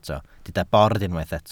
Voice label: "bord", Welsh